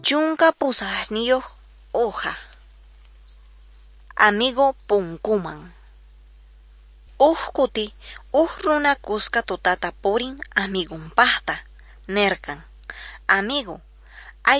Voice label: quechua norte de bolivia apolo